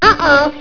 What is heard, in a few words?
uh oh